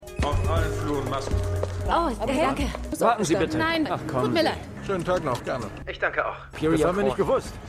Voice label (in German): Wachmann Frau Mann Verkaeufer Bewerber Polizist; Stimmproben